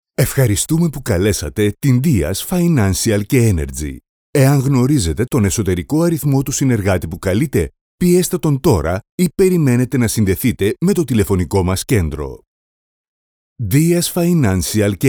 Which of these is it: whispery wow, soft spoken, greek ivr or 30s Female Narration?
greek ivr